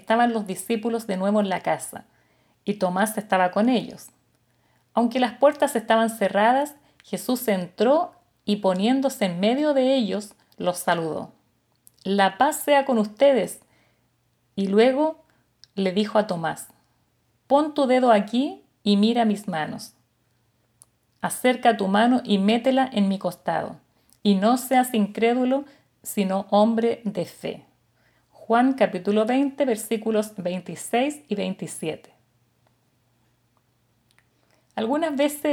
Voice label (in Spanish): devocional